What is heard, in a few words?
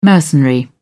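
"mercenary", British English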